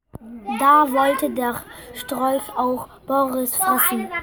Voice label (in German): Sprachmemo